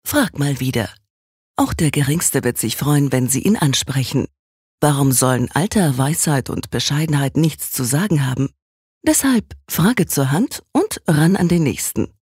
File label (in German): Werbung, Elitaer